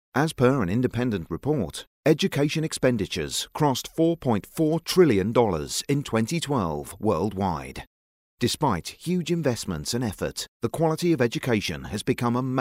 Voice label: male british voice over narrative, english british